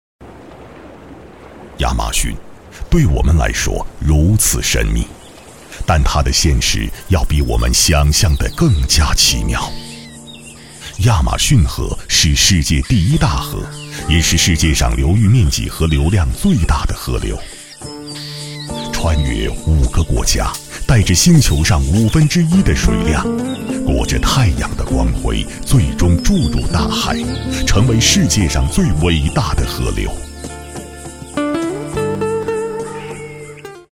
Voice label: 男 纪录片 低沉; 亚马逊

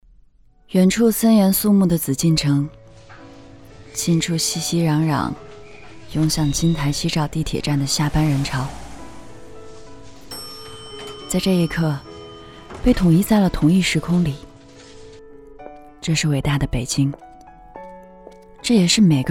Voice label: 女 广播剧; IP广播剧《北京女子图鉴》

